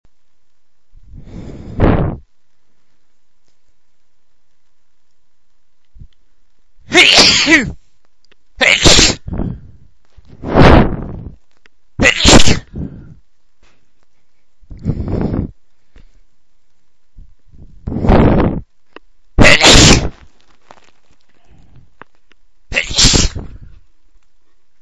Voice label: Violent, male dom